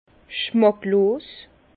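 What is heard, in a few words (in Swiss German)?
"schmacklos", Alsace Bas Rhin; vin; fade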